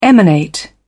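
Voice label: "emanate", British English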